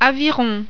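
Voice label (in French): "aviron", French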